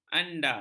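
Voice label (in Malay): "anda", Malay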